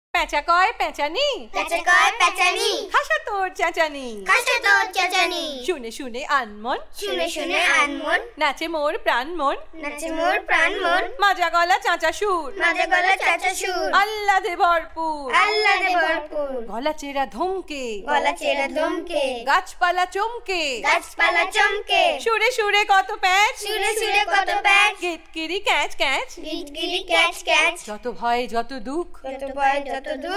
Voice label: Recitation